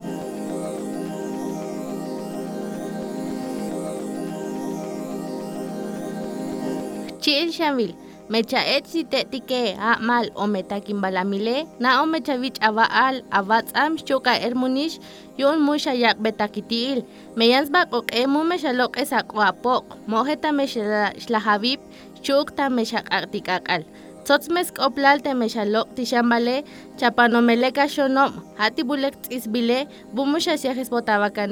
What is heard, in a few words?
TSOTSIL